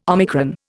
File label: "omicron", Indian